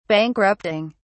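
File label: "bankrupting", British English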